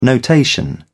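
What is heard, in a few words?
"notation", British English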